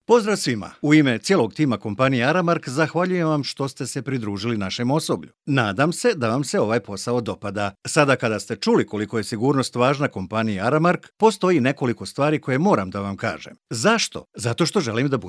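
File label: Bosnian, male, narration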